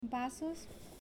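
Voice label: "Vasos", Spanish, female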